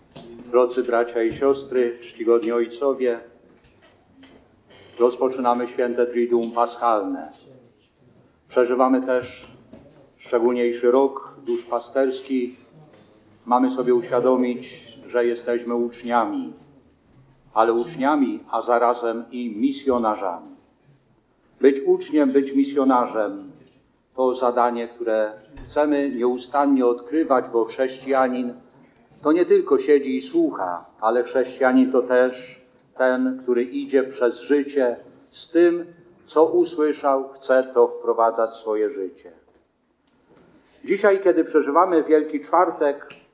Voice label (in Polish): Kazanie; Wielki Czwartek; pamiatka ustanowienia eucharystii i kaplanstwa